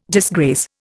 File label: "disgrace", Indian